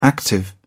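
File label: "active", English, British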